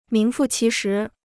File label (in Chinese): "名副其实", Chinese